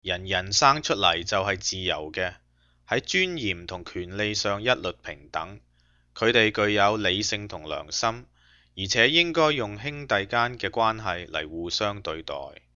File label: cantonese